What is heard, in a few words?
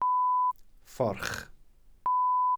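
"fforch", Welsh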